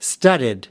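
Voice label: "studded", American English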